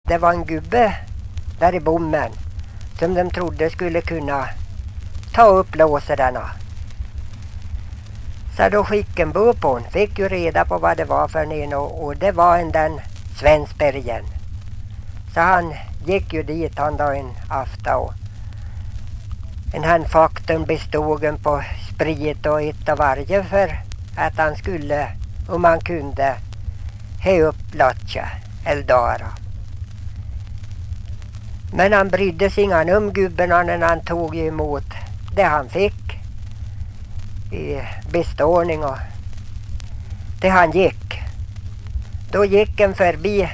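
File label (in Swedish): Ljustorp Medelpad, Svenska sagor, dialekter; Folkminnen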